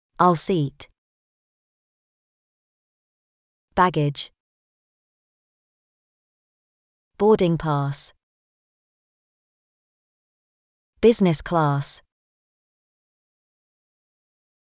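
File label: British; air travel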